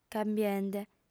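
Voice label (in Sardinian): "cambiende", female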